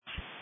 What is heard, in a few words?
Sheriff Dispatch